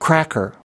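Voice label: "cracker", US English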